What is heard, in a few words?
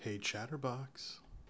hey chatterbox, en-us